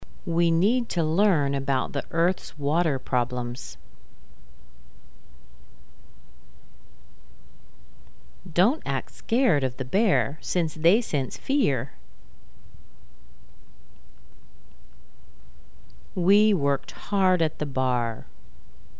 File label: R sentences, American accent